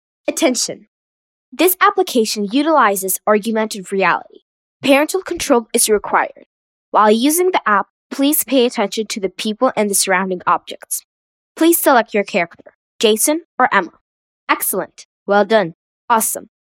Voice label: girl ENG